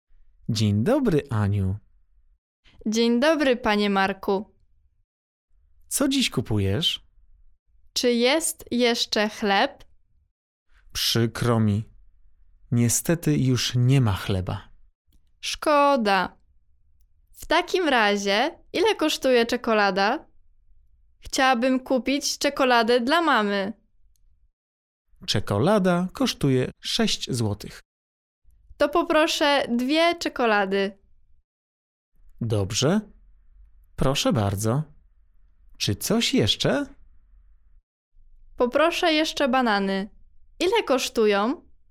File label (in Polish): dialog; Klasa 4 zadanie 2